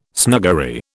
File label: "snuggery", British